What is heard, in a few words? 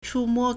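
"chùmō", Chinese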